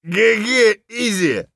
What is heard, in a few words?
scav mutter, voice; Escape from Tarkov; scav4